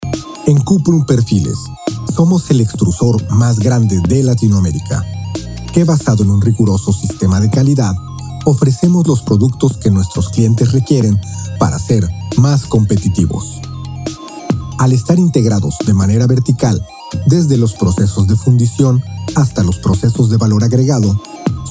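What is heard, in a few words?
corporativo, Mexican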